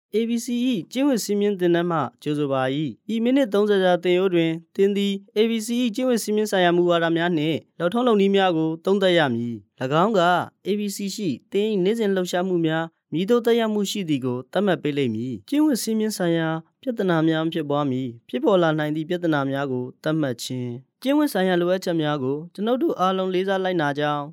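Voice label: Burmese, Myanmar, male, voice over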